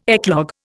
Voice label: "eclogue", Indian